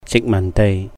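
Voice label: "jihk màhn deih", colony, Cantonese; 殖民地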